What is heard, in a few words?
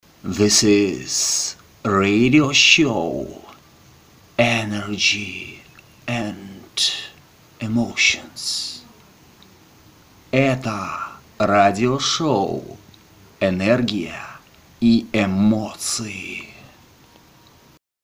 RADIO SHOW